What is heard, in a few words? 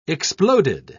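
"exploded", English, British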